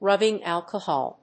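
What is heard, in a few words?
"rubbing alcohol", English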